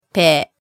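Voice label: "pe", Japanese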